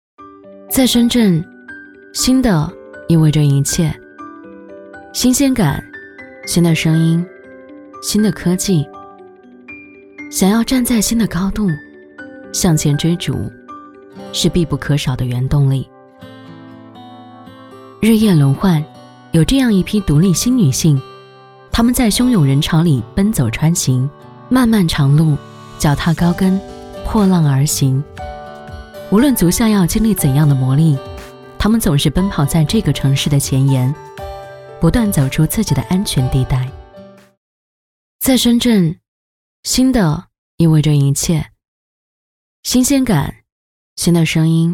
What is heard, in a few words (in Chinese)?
女 时尚广告; 圣伽步